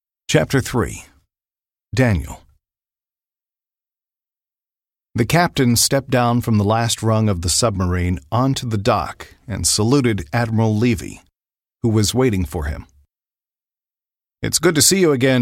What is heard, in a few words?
audiobooks